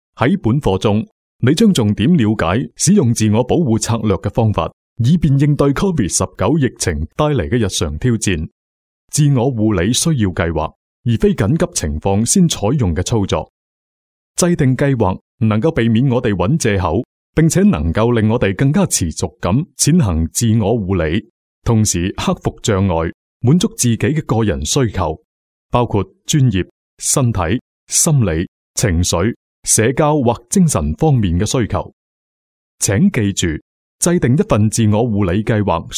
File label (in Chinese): 男 粤语; PTSD